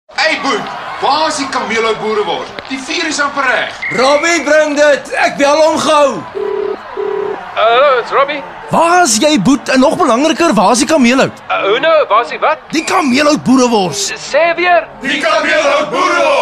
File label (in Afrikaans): Afrikaanse Radio Advertensie; Kameelhout